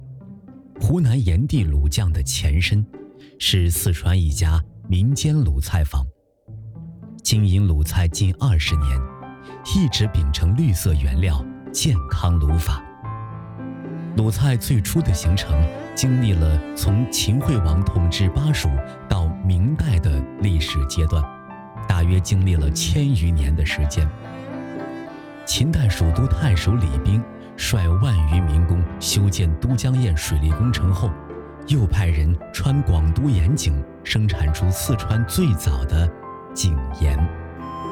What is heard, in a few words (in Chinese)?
男 纪录片 美食; 湖南炎帝卤匠